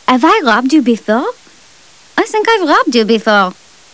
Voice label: chat; maeve